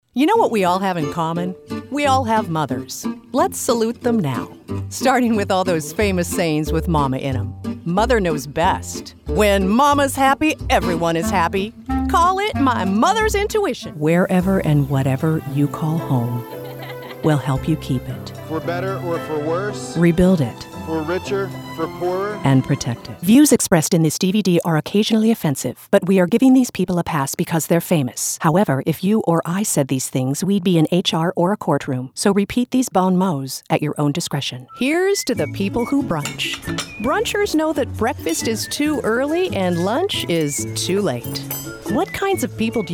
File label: Commercial; voice demo production samples